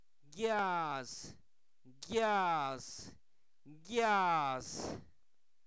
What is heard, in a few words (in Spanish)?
cigarro, Zapoteco, santo domingo albarradas